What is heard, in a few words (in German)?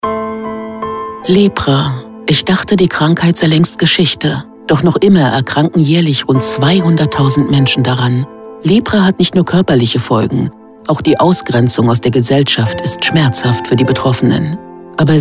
Spot, radiowerbung; Deutsche Lepra- und Tuberkulosehilfe